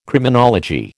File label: "Criminology", American